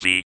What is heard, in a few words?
speak and spell; Deltarune Chapter 2